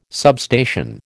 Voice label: "substation", American

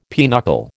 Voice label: "pinochle", American

